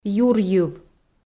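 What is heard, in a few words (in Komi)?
"юрйыв" Komi, female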